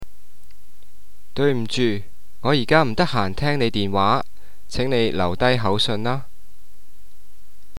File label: Cantonese, leave him a message; 我而家唔得閒聽你電話，請你留低口訊。; Cantonese Prompt